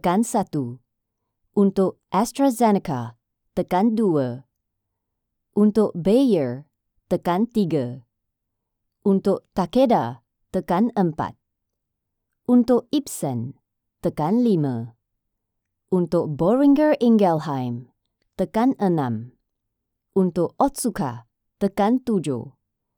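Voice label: Malay interactive voice response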